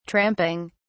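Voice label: "tramping", British English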